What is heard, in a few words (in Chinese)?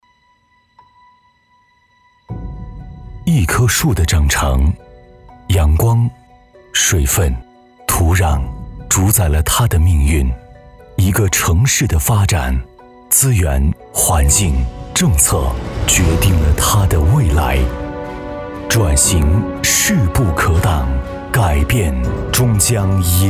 宣传质感大片